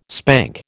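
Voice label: "spank", American English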